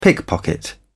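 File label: "pickpocket", British English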